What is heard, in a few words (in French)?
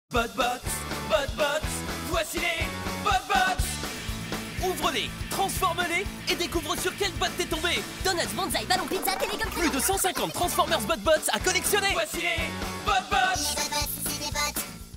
spot tv jouet, demos voix; transformers botbots